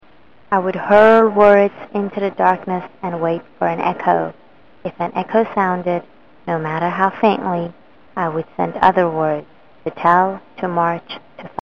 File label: Echo